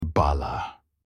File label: child in turkish